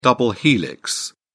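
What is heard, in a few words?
"double helix", British English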